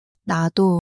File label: sadness, monologue